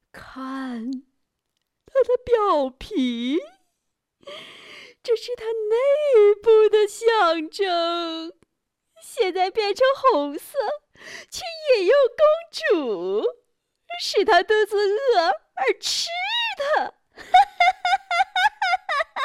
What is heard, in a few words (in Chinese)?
配音白雪公主